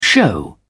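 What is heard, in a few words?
"show off", British English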